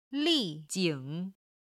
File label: "li4jing3", chinese